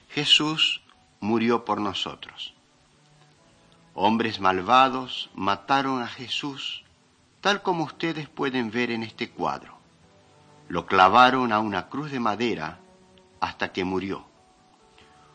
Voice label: mighty men of god spanish latin america

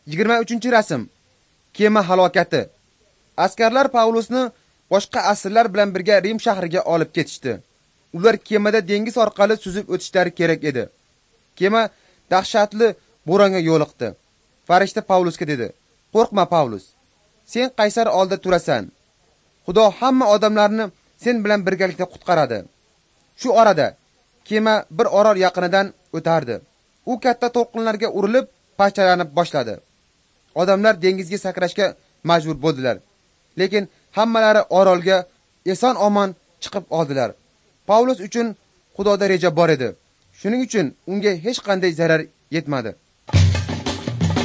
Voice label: uzbek northern; acts of the holy spirit

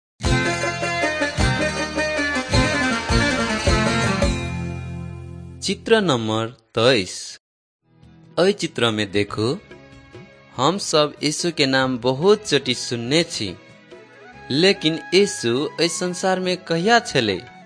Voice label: maithili thetiya

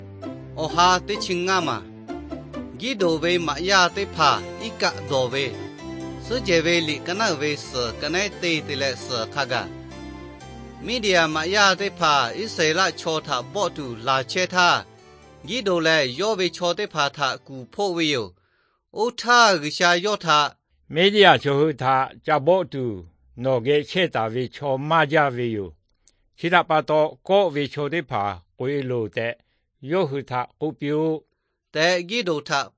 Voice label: victory through god lahu na, nt drama

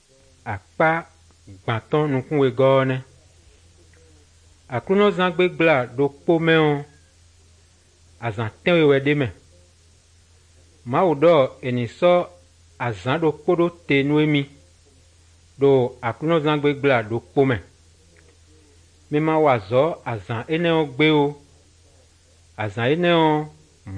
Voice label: good news, fon fongbe bible drama

